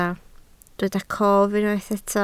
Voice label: "cof", Welsh